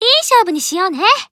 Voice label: Emo Warcry